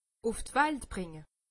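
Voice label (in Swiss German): "welt", Alsatian; medecine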